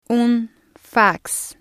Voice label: "un fax", spanish

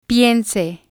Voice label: "piense", spanish

